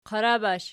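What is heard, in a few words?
"qarabash" nominative, Archi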